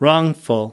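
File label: "wrongful", US English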